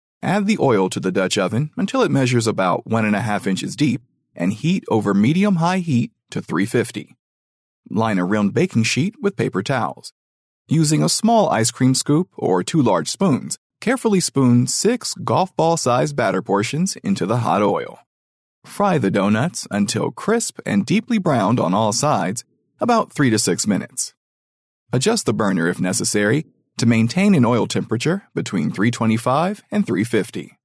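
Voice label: American English, male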